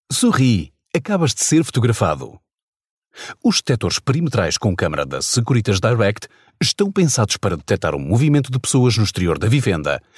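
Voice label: Portuguese, male, ELearning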